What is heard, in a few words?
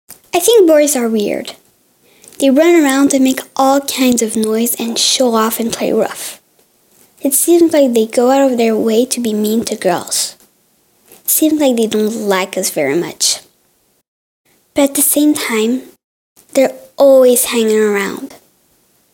EN, Publicité; EN 2025 - Boys are weird